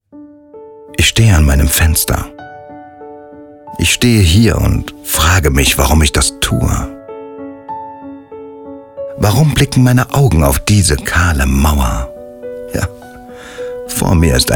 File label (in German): Monolog Poetry; Die Mauer